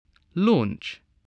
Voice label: "launch", English